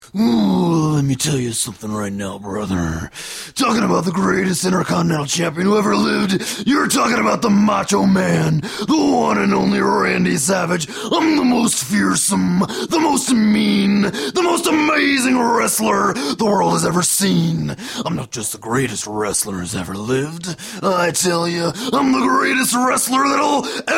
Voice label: impressions